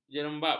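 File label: "jerembap", Malay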